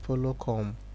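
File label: "follow come", Nigerian Pidgin, male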